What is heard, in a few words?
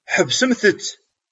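"Ḥebsem-t.", Kabyle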